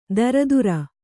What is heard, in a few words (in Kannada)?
"ದರದುರ", kannada